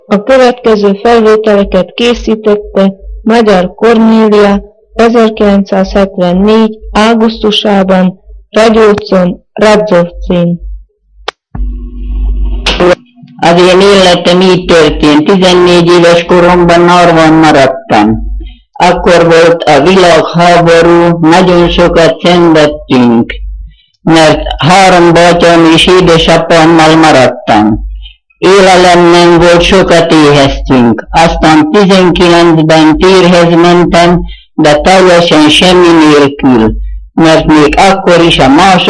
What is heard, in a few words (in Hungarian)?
nyelvjaras gyujtesek